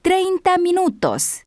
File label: spanish 30 min; samsung YP-U5; Audio User Interface